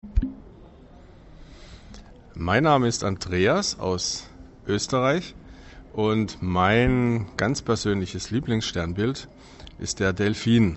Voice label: German